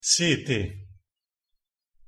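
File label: "sete", pronunciation, Italian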